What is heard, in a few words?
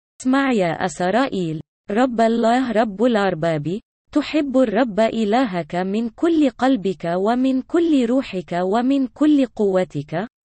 ARABIC, chants; deut 6 4 5